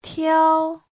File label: "tiao1", Chinese